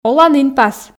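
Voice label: "олан инпас", Komi, female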